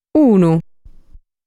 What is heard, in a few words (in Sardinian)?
"Unu", sardu